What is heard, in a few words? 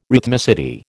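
"rhythmicity", American English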